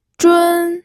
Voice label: "zhun1", pinyin

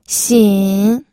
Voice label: "xin3", pinyin

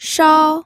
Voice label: "shao1", pinyin

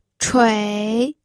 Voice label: "chui3", pinyin

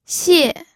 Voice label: "xie4", pinyin

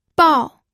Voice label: "bao4", pinyin

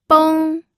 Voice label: "beng1", pinyin

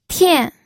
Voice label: "tian4", pinyin

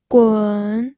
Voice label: "gun3", pinyin audio

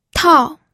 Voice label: "tao4", pinyin

